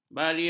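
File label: "barium", Malay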